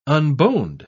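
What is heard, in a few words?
"unboned", British English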